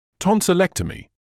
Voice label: "tonsillectomy", English

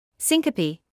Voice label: "syncope", medical English

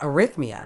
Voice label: "arrhythmia", Medical English; əˈrɪð.miː.ə; uh-RIDH-mee-uh

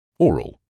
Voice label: "oral", English

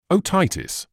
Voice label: "otitis", English

